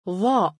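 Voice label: "Dhaa" Arabic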